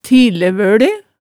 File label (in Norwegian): "tilevøle", hemsedalsmaalet tale